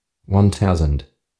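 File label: visibility 1000, Australian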